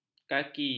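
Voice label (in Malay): "kaki", Malay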